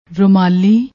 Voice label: "rumalli", Punjabi

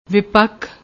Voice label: "vipikh", Punjabi; ਵਿਪੱਖ

